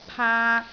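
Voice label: "paak3", Cantonese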